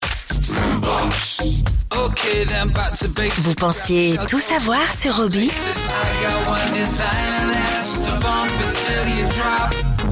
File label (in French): posee complice, voix off comédienne; SFR